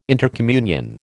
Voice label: "intercommunion", American